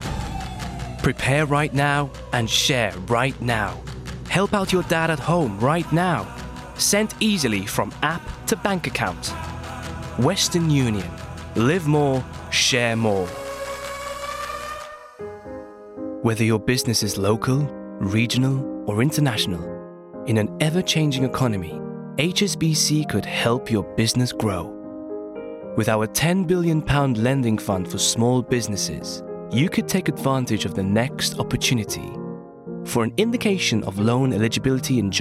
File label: Commercials, Trusted, Friendly, Grounded